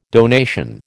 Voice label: "donation", American